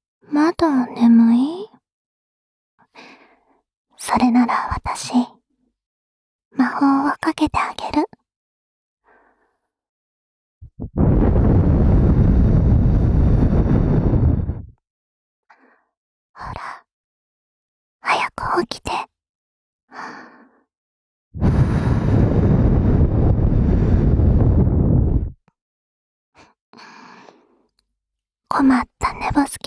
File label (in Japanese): スマホ用目覚ましボイス; いくつになっても妹が、俺の世話を焼きたがる。; KU100バイノーラル